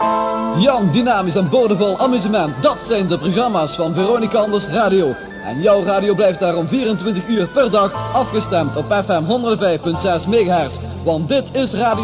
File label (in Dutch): Jingle jong, dynamisch en boordevol amusement; Station Calls